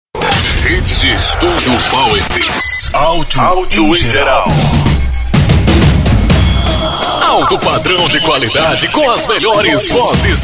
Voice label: spot publicitário; studio power mix

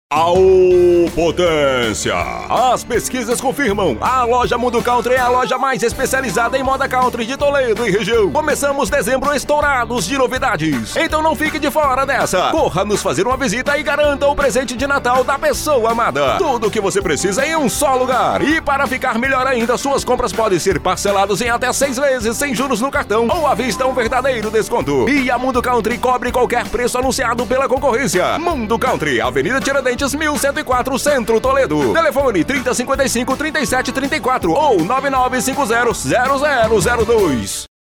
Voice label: publicidade rodeio

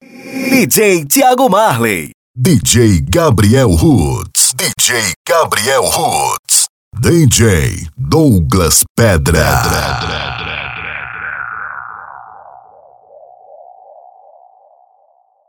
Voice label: banco de vozes banco de voz locutor vinheta

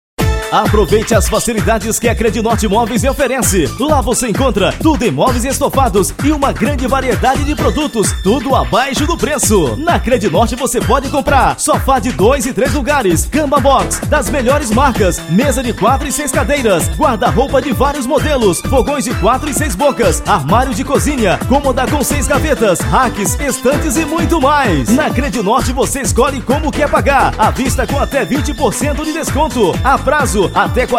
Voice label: locutor spot; acredinorte moveis

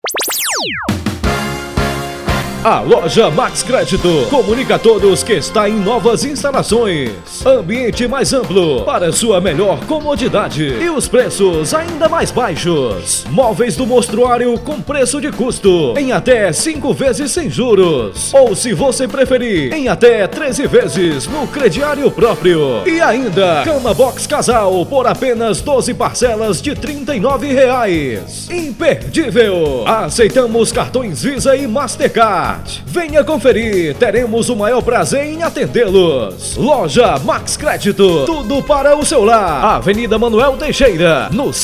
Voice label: spot jingle; loja max credito

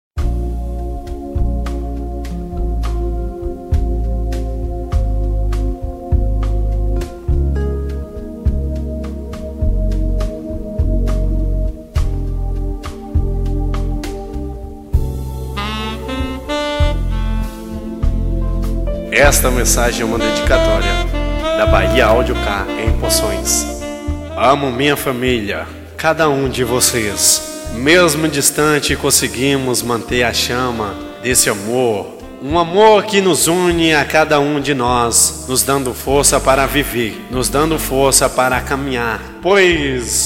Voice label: locutor

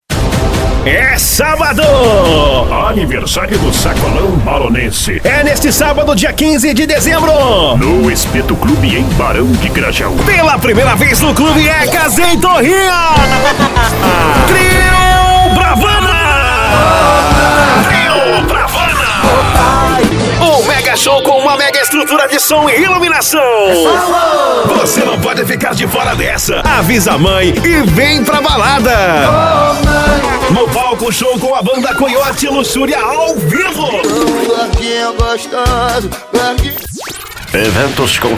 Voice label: locutor producao de offs vinhetas spots comerciais

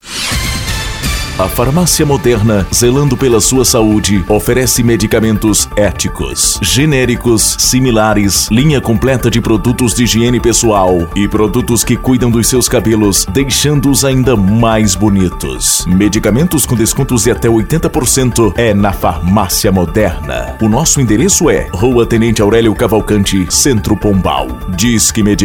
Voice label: spot farmacia; farmacia moderna

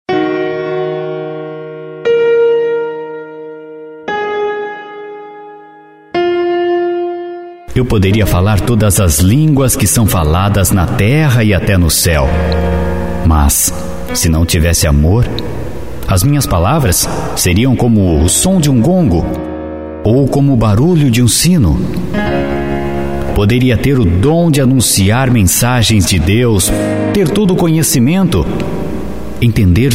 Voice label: locutor corintios cap 13

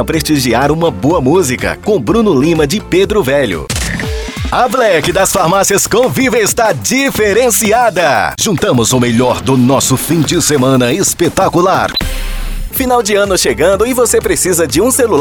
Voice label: banco de voz propagandas pra pizzaria anuncio restaurante comercial farmaci; celular

